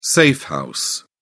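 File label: "safe house", English, British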